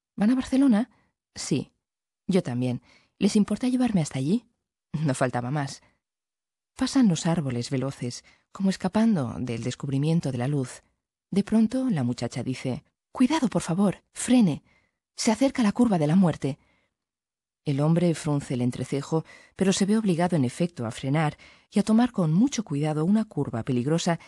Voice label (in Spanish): es audiolibro; montalban